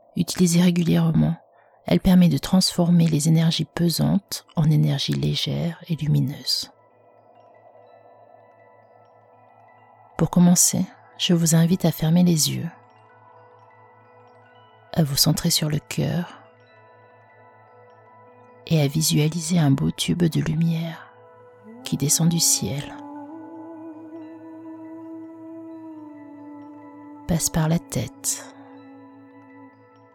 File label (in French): extrait meditation flamme violette liberation et purification des energies